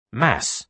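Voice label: "mass", British English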